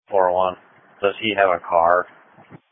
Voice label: Sheriff Dispatch